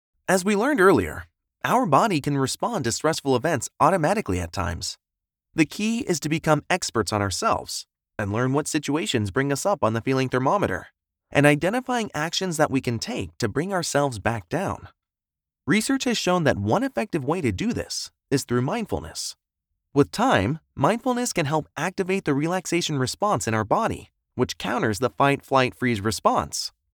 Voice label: eLearning Mental Health